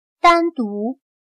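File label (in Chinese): "单独" Mandarin Chinese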